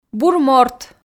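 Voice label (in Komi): "бур морт", Komi, female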